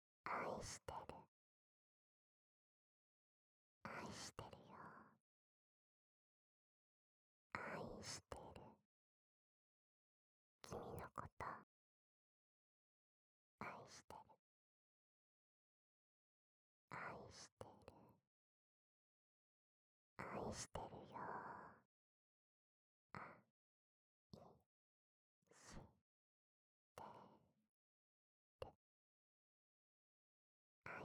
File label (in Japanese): 囁き 左耳 愛してる